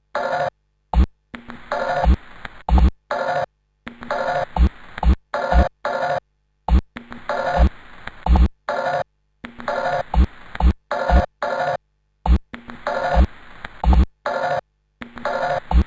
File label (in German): werbekollagen